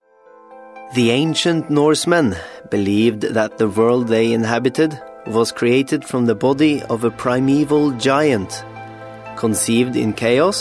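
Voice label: Norwegian, English; Scandinavian, Male, 20s-30s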